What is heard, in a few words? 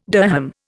"dirham", Indian